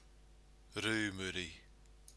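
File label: "rumuri", Māori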